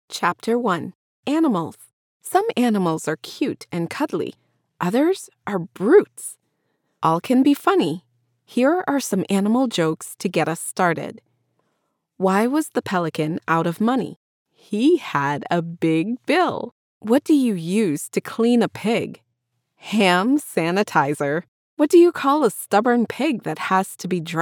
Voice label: Audiobooks, Audiobook Narrator; The Jumbo Book of Funny Jokes for Kids